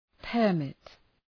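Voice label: "permit", English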